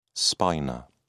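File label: "spina", British English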